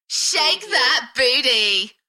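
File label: UK female, Enthusiastic, DJ vocals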